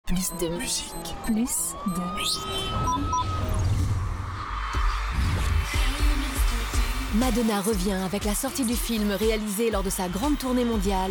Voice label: Voix off programme; Plus2Music

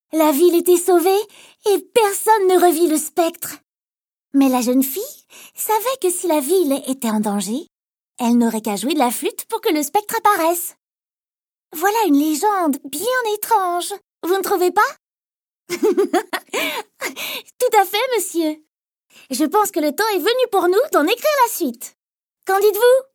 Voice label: Voix Petite fille, Jeu vidéo; Arianna

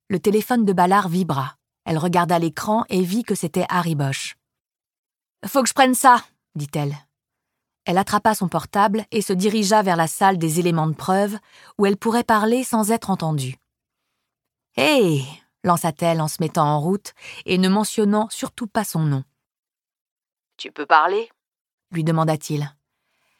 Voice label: LIVRE AUDIO; Renée Ballard; « A qui sait attendre » de Michael Connelly; Série d'enquêtes avec Harry Bosch; audiolib

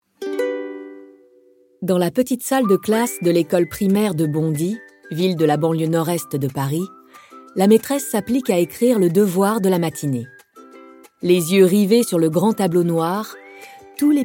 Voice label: Podcast Story Intro; MBAPPE; SERIE PODCAST STORY

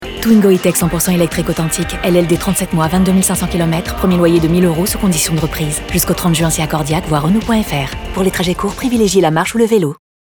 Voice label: Pub Radio Mentions legales; RENAULT Twingo E-Tech

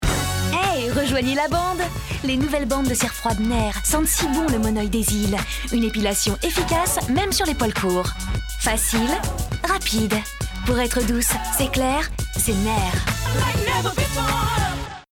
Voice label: Voix Off Pub TV; Nair; version americaine

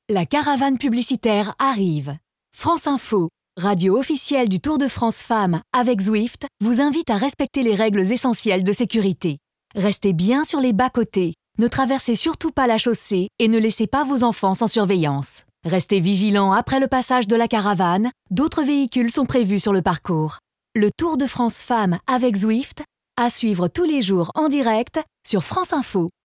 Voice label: Voix Off, Voix pub radio et Caravane; PRE CARAVANE; Tour de France Féminin 2022; France Info

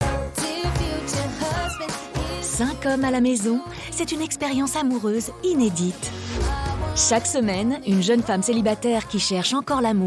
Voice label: Voix Off Emission; 5 hommes a la maison sur M6; narration de la nouvelle emission quotidienne sur m6

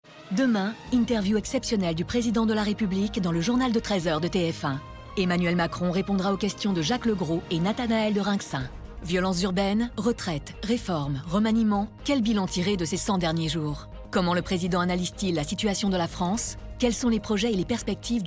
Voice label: Voix off, bande annonces; TF1; ITW MACRON 13H; emmanuel macron

